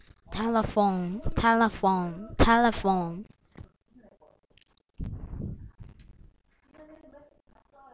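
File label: "telephone", spoken word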